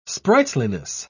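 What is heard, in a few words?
"sprightliness", English, British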